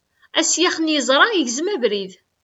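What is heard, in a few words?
Kabyle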